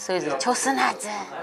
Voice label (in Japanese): "chosu", minami yamagata